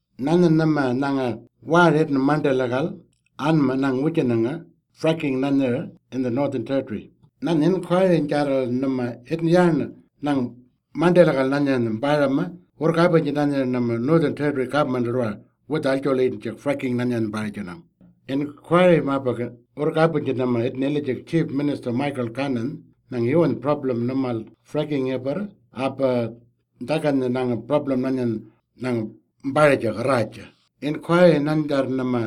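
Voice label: Western Arrarnta; Fracking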